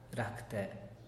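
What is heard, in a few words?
"racte", Teotitlán del Valle Zapotec; creerse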